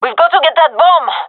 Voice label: cover defuse, gendarmerie fem; vo